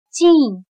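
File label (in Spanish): "近", chino mandarin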